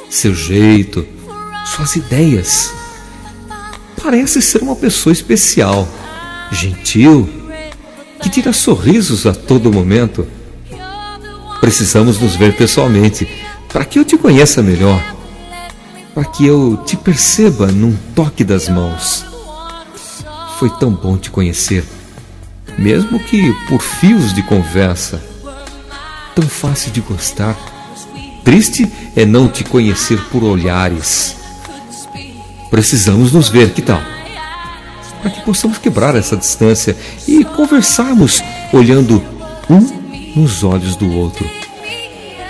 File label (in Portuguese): paquera por telefone masc; Telemensagens; Conquistas Cantadas paqueras; Telemensagens 2017